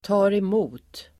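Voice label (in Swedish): "tar emot", Swedish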